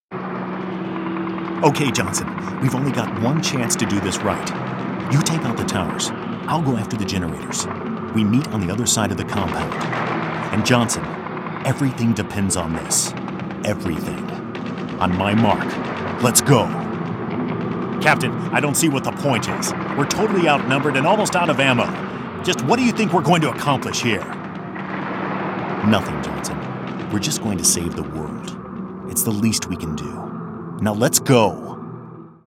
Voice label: Video Game Dialogue- Various Voices; ビデオゲーム・ダイアログ